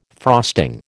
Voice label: "frosting", American

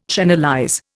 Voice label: "channelize", Indian

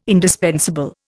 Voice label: "indispensable", Indian

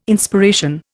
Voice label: "Inspiration", Indian

